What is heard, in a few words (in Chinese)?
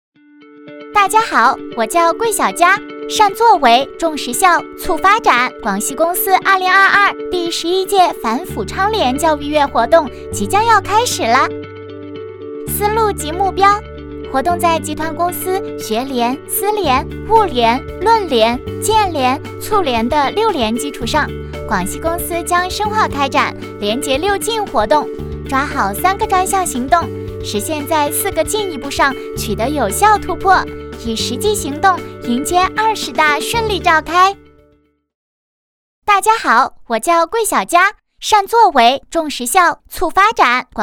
女 MG 动画视频反腐倡廉教育月活动